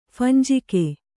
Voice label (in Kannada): "ಫಂಜಿಕೆ", Kannada